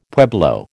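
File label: "pueblo", American English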